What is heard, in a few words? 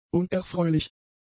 "unerfreulich", German; adjectives